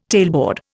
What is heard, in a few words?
"tailboard", Indian